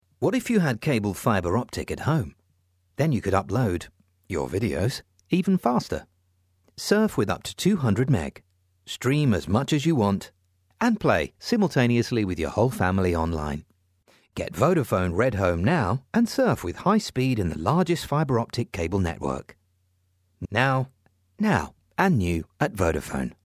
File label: corporate; Vodaphone